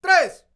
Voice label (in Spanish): "TRES", MEXICAN; ILIO; Vocal Planet VOL-5